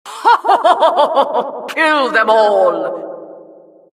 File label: announcer, vo, killstreak